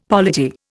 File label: "polity", Indian; Dictionary